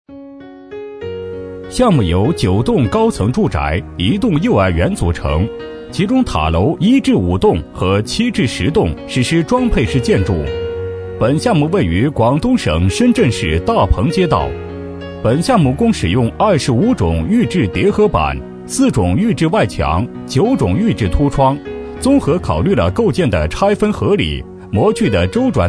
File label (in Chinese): 男声 工程课件解说